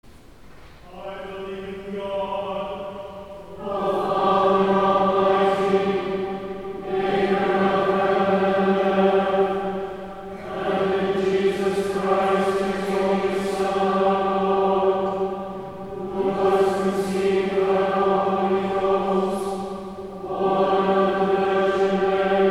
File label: Creed, monotoned; St. John's Cathedral, Jacksonville Florida